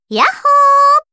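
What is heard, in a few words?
story, Japanese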